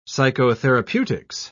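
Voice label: "psychotherapeutics", British English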